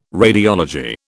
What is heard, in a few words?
"radiology", British